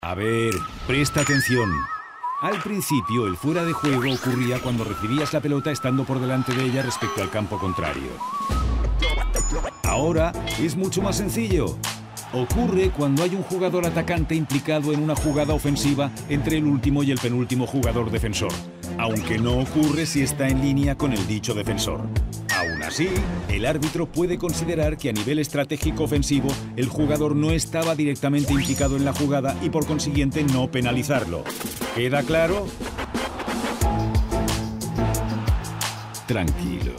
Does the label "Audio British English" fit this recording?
No